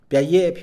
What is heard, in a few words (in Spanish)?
perseguir, Mixe, hombre; Movimiento